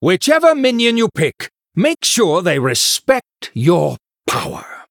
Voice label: Male Human Hire